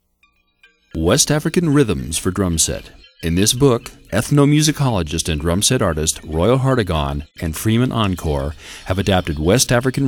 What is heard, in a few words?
narration